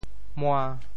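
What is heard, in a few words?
"mua~5", Teochew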